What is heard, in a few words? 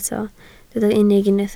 "unig", Welsh